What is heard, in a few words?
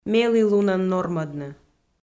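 "мелілунӧн нормӧдны", Komi, female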